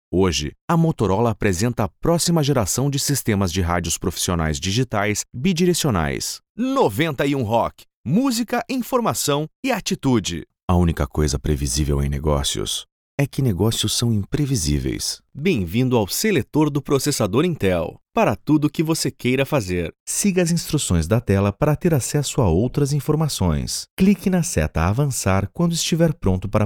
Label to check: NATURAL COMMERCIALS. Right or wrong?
Wrong